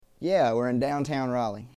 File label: Southern American English, Yeah, we’re in downtown Raleigh.